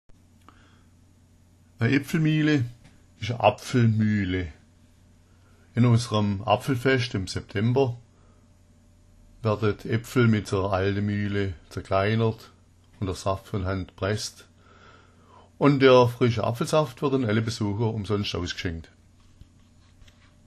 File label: epfelmihle, schwaebisch